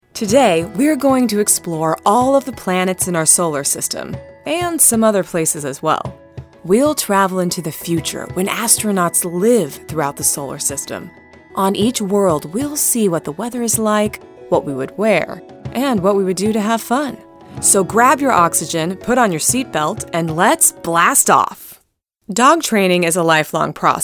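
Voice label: US English, Kommentar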